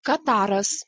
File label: "kataras", Lithuanian